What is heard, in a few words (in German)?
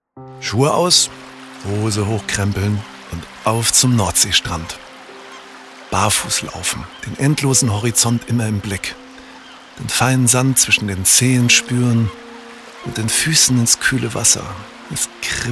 Sanft; Fremdtake